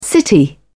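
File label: "the city", British English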